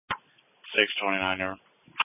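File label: Police Patrol Dispatch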